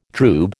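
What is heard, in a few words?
"droob", American English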